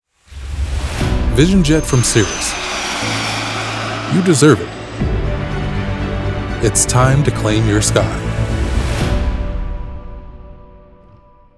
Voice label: Television Spots, Friendly, conversational, approachable Male Voice; Disabled American Veterans; Cirrus Vision Jet Spot